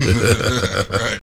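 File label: vocals, Jungle; E-Lab; XTC Files of Jungle; VOX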